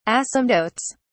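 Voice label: "asymptotes", British English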